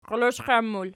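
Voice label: "xxioloshqan" plural, Archi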